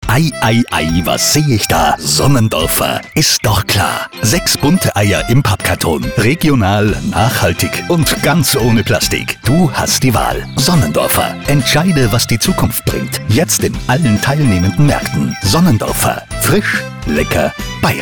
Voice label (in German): Funkspot; Sonnendorfer; 6 bunte Eier